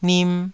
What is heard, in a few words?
"nim2", taiwanese